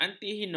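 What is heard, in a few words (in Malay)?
"antirhinum", Malay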